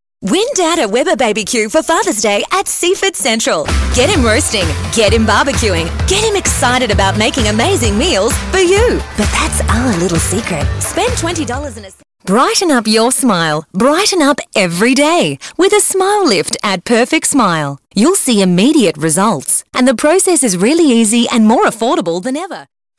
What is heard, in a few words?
english australian radio imaging; australische Sprecherin